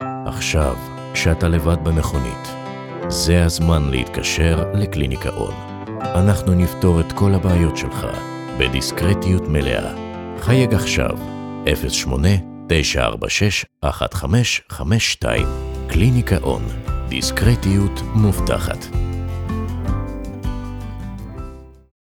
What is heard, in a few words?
Hebrew with music